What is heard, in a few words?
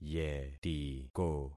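"yetiko", concatenated syllables; Affixing experiment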